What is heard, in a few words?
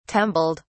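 "tumbled", British English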